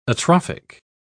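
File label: "atrophic", English, British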